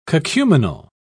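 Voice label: "cacuminal", British English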